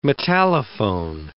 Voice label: "metallophone", English

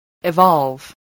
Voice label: "evolve", voice, english

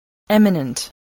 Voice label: "eminent", spelling

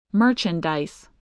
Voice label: "merchandise", voice, spelling

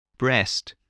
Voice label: "breast", spelling

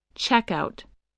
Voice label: "checkout", English, voice

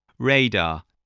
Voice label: "radar", voice spelling

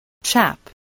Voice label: "chap", English, voice

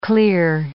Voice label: "clear", English

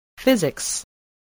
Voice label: "physics", voice, English

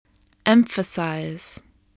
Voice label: "emphasize", spelling

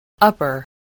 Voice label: "upper", English

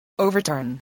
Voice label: "overturn", English voice

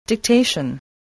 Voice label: "dictation", english spelling

